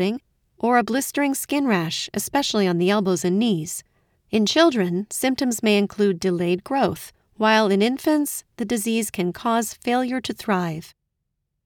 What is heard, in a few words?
Explainer Medical Celiac